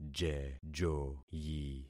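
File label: "jejoyi", concatenated syllables; Affixing experiment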